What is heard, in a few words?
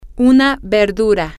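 a Vegetable, Spanish, female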